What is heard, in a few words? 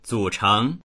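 "zǔchéng" Mandarin Chinese; 组成; 組成、構成（する）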